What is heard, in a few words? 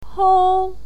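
"hou", Mandarin Chinese, first tone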